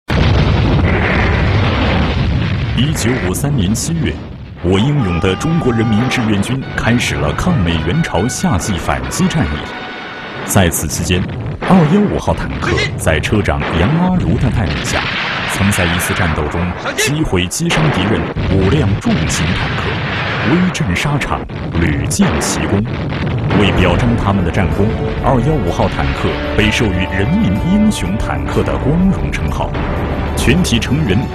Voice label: 男 记录片 军事频道, 浑厚磁性, 国语男声配音; 英雄坦克

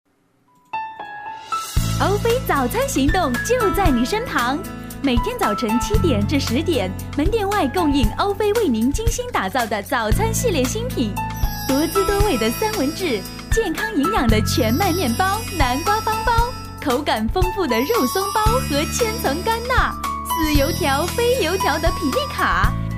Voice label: 女, 广告, 年轻时尚; 早餐行动

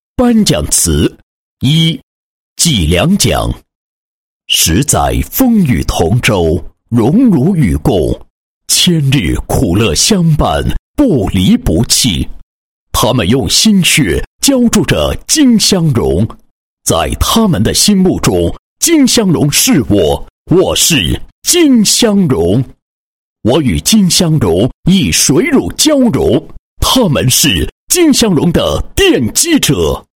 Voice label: 男 颁奖词; 金湘隆企业颁奖词

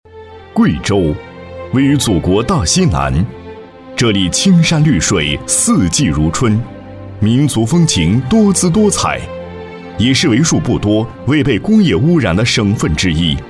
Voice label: 男 品牌宣传 宣传配音; 黔酵树莓酵素

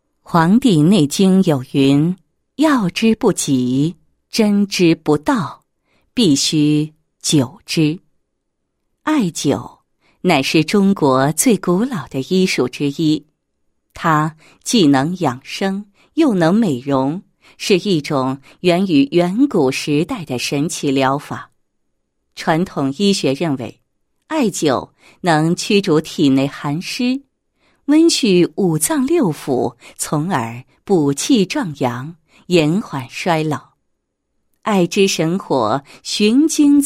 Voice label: 女 宣传片; 圣爱中医馆; 艾灸 系列产品

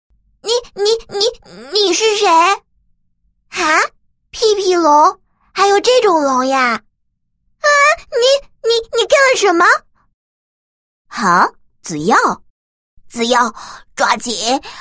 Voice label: 女 角色 男童 女童 少年等 多角色动画配音

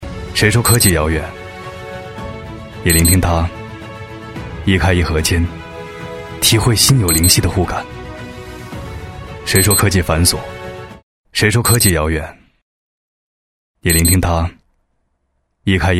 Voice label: 男 科技感专题 高端厨房家电; COLMO

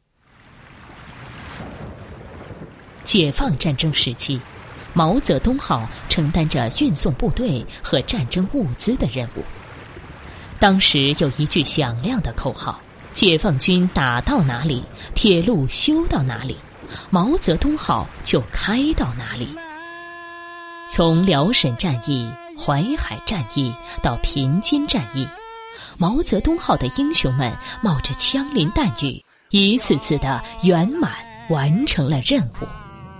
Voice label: 女4号 纪录片, 国语女声配音

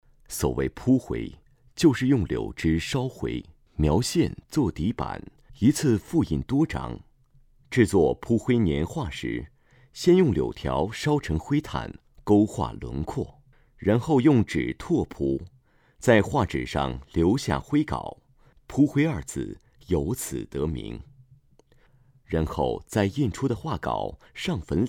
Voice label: 解说; 扑灰年画

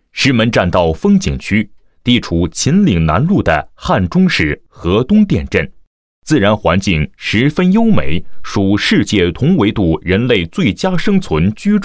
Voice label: 男 多媒体 水库, 国语男声配音 厚重专题

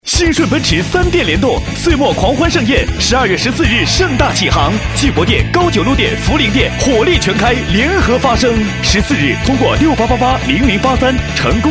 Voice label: 男 广告 汽车 动感

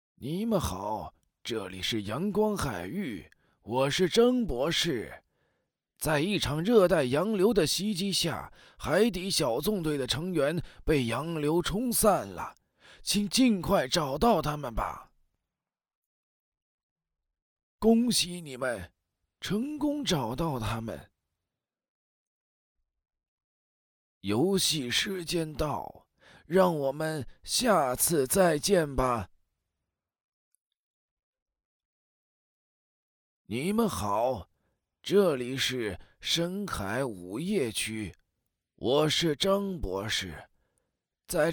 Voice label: 老年音; 小纵队章鱼博士

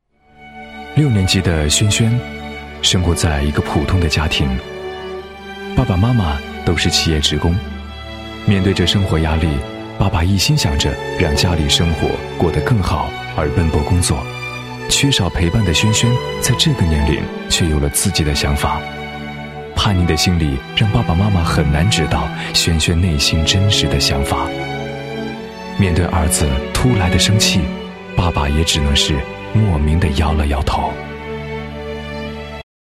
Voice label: 男 教育专题 科教片; 孩子的教育问题案例

